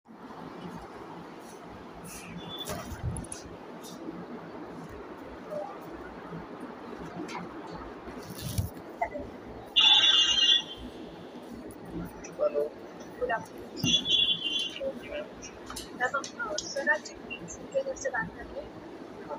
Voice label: call recording